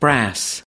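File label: "brass", US English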